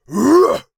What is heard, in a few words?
fat male attack; Enemys